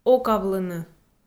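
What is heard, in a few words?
"окавлыны", Komi, female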